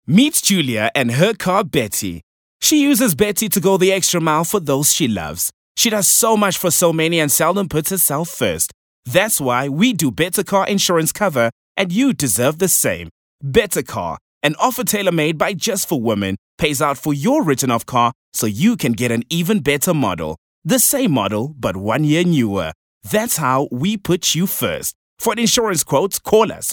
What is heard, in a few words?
English hardsell